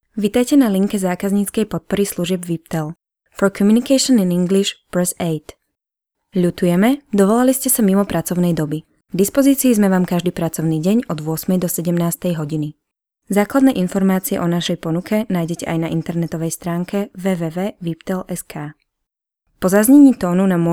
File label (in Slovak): hlas zena; VIPTel